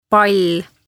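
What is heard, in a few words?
"Pall" Estonian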